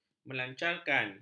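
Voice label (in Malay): "melancarkan", Malay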